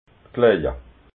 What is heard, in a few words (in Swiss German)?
"klaia", alsace